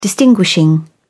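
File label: "distinguishing", British English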